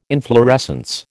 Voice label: "inflorescence", American